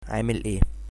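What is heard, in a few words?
how are you, Egyptian Arabic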